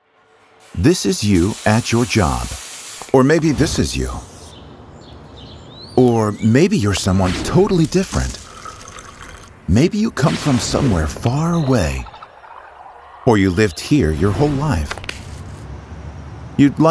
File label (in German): Erklarvideo, NATIVE PROFISPRECHER; Profi Publikum